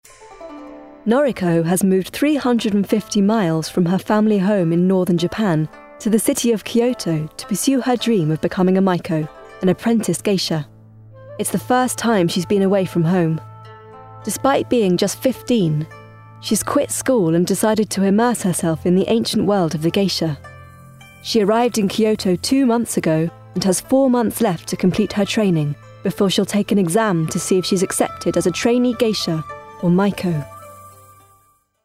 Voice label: DOCUMENTARY; Geisah Girls